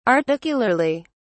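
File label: "articularly", English, British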